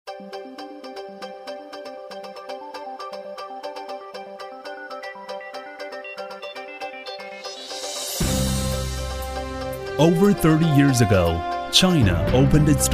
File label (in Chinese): 男英 宣传片 企业 公司 科技; 英语